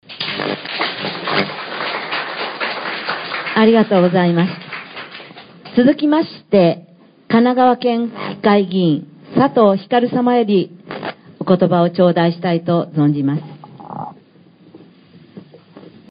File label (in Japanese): 司会; rakuseishiki